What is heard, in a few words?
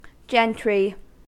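"gentry", American English; pronunciations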